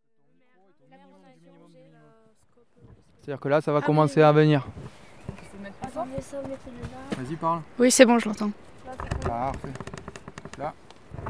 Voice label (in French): MICROTROTTOIR FAMILLE HAUTE LOIRE; collège Vallée du Thoré